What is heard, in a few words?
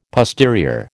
"posterior", American